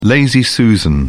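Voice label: "lazy susan", English, British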